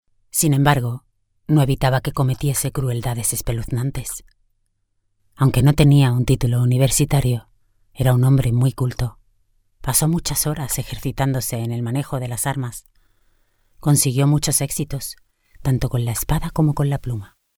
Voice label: locutora spanish voiceover

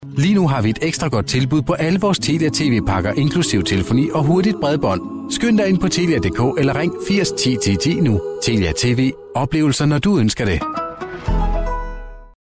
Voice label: danish voiceover locutor dinamarca

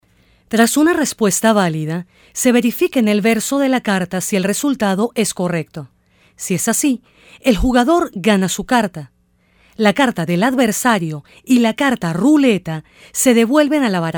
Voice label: locutora venezolana venezuelan female voiceover

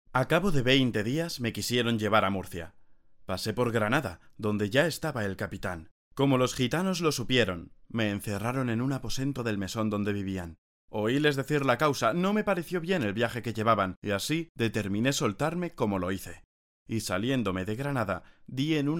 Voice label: locutor spanish voiceover

